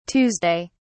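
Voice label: "tuesday", British English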